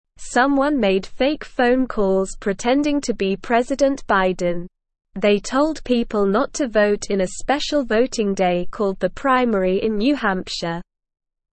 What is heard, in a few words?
Beginner SLOW Reading, English; English Newsroom